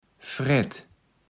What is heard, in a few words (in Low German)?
"frett", kaevels platt